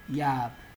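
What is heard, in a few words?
"yab", Nigerian Pidgin, male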